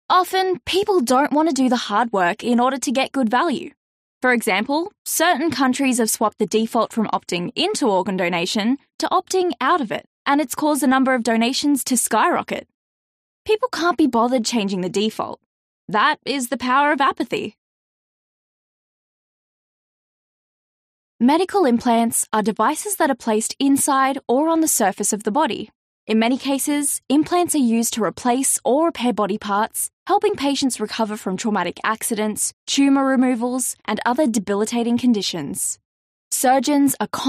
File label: CORPORATE